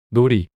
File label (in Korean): "놀이" 단어 남자